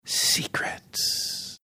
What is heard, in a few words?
whispering